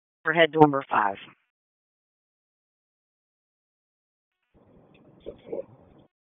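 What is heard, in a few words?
Police District Dispatch; Raleigh Police Northeast District Disp; wake p25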